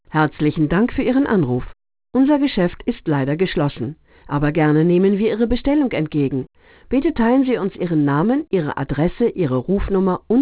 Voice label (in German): ansage (w)